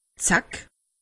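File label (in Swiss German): "zack", Alsatian; medecine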